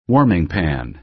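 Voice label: "warming pan", American English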